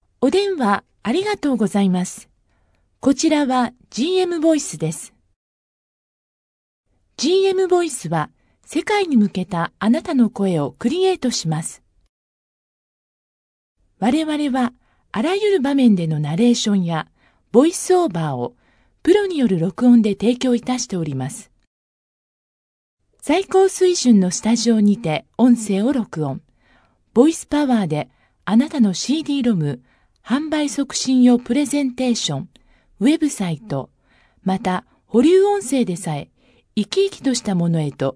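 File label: Japanese female voice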